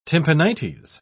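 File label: "tympanites", English, British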